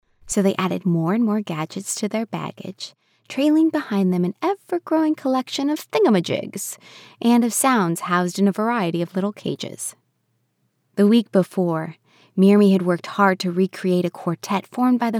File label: Childrens Story Conspiratorial Engaging